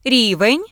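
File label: "level", Ukrainian; gcompris-data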